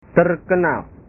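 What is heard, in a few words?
"terkenal", Indonesian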